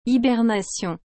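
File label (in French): "hibernation", French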